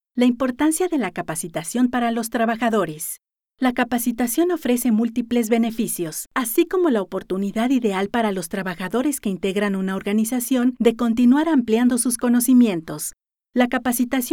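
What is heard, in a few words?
eLearning Spanish Female, Upbeat, Energetic, Emotive; Narration, eLearning, International Voiceover, Spanish (Latin and Castillian)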